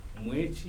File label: "moon", Kibembe